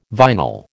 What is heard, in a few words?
"vinyl", American